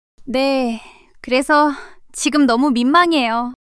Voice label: shy; monologue

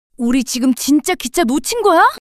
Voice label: surprise, monologue

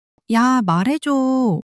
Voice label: sulk, monologue

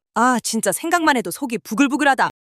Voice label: anger; monologue

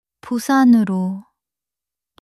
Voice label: sadness, monologue

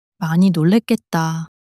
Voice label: sadness, monologue

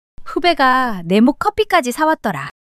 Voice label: shy

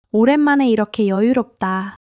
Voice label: joy; monologue

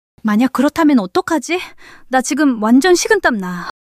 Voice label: fluster; monologue

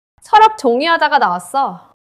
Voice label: neutral, monologue

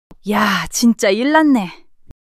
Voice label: fluster, monologue

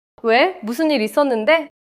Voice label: neutral, monologue

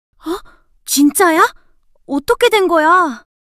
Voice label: surprise, monologue

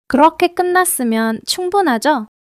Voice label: joy; monologue

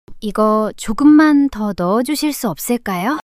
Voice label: shy; monologue

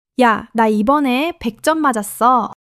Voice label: excitement, monologue

